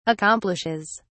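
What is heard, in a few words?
"accomplishes", British English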